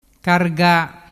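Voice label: "carga", Vernazzese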